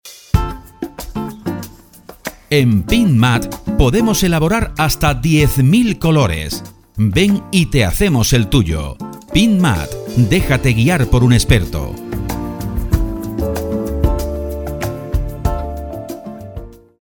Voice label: 10.000 COLORES ESPANOL; pinturas andalucia

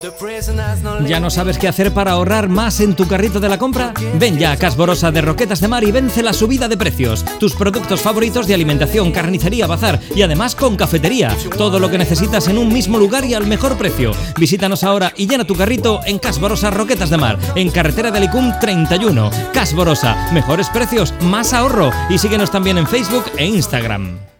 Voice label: Cuña genérica, Roquetas; ALVAREZ LUCHA SL; CASH BOROSA

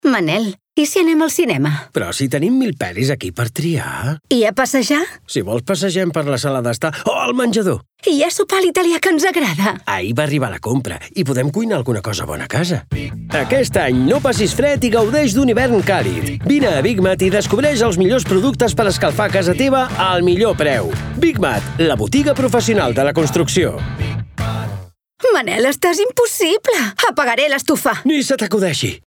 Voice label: CALEFACCION CAT; BIGMAT

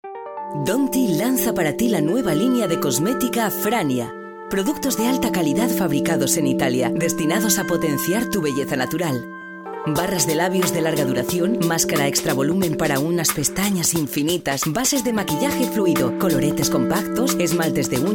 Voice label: voz femenina, ESPANOL; CASTELLON; GENERICAS

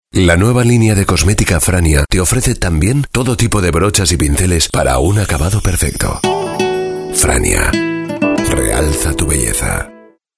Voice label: voz masculina, ESPANOL; ofertas vigentes; GENERICAS

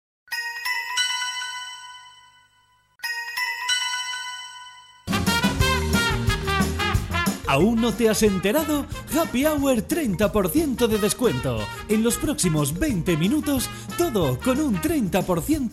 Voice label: HAPPY HOUR 20 MINUTOS ESPANOL; HUMANA; ANDALUCIA-MADRID; HAPPY HOUR SEMANA SANTA

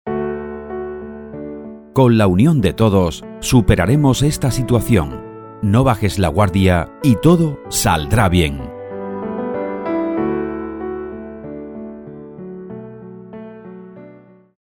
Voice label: mensaje motivador; primaprix portugal

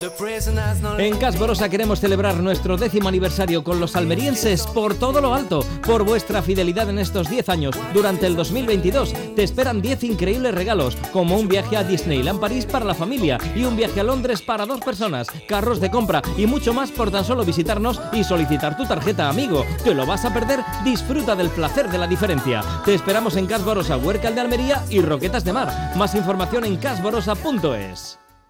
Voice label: cuña; ALVAREZ LUCHA SL; CASH DECIMO; ROQUETAS

